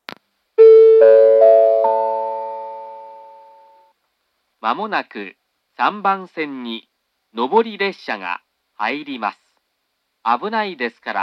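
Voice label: platform 3 approaching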